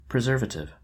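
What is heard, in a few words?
"preservative", American English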